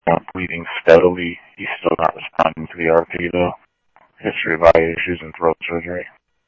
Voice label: Police, scanner audio trunk; H-Scottsdale